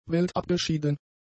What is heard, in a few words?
"weltabgeschieden", German; adjectives